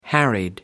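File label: "harried", British English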